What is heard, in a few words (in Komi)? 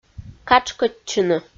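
"катшкӧдчыны", Komi, female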